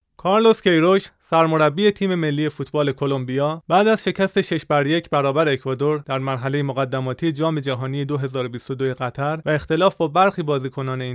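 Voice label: Farsi-Persian, male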